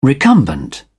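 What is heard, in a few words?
"recumbent", British English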